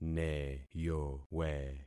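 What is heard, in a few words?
"neyowe", concatenated syllables; Affixing experiment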